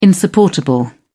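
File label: "insupportable", British English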